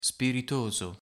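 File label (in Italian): "spiritoso", Italian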